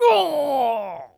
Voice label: Male